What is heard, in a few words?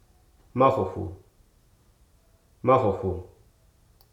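"invisible", spoken word; hruso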